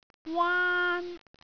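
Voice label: "waan1", Cantonese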